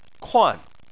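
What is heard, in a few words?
"kuan" fourth tone, Chinese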